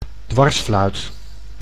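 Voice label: "dwarsfluit", Dutch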